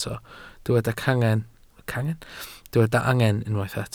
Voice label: "angen", Welsh